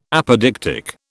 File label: "apodictic", British